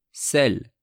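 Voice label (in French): "celle", French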